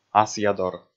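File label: "асъядор", Komi, male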